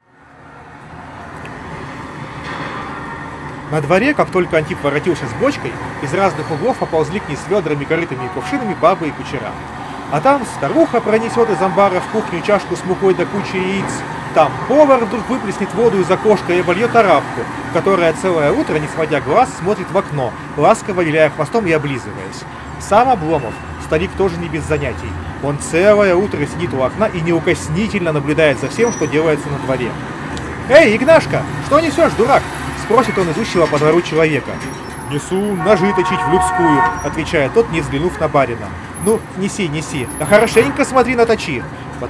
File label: Recorder noise; realme buds air 8 pro